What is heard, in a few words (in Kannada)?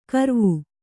"ಗರುವ", Kannada